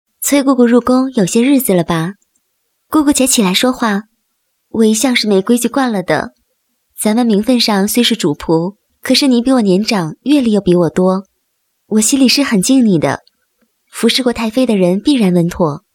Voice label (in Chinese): 女 角色, 国语女声配音; 甄嬛